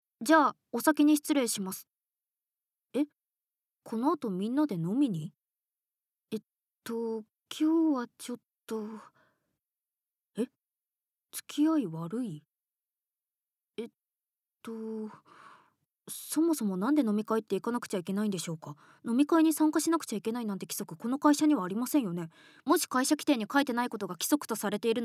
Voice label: Woman